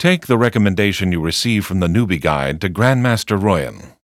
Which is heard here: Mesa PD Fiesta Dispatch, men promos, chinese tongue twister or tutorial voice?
tutorial voice